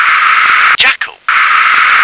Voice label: "jackal", English